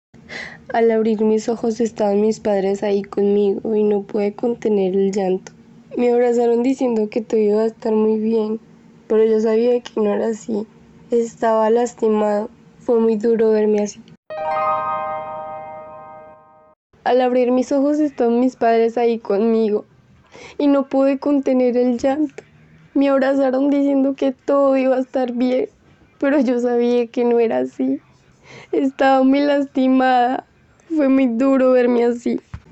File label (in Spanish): Adolescente; casting trineo tv